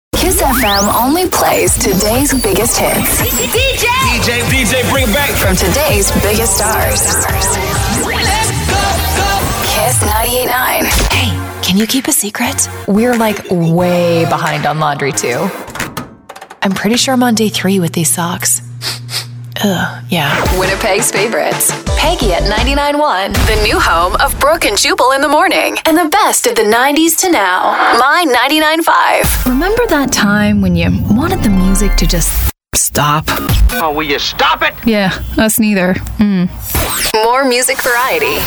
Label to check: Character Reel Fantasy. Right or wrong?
Wrong